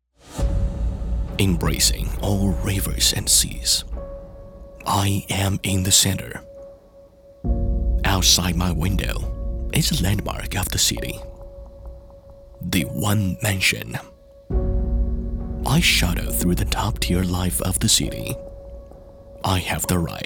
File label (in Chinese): 男 英语 中青年 品质感地产广告